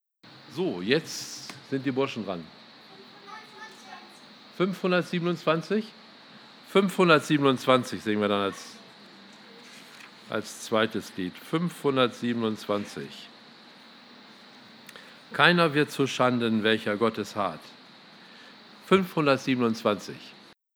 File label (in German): Ansage; Lagerversammlung 2018